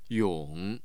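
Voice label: "yong3", Mandarin Chinese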